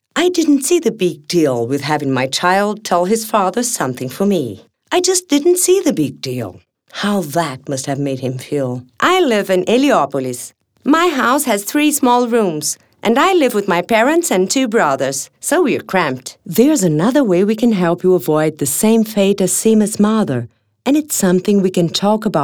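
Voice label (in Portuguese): Inglês, Atriz e Sotaques, Feminino; Inglês - Outros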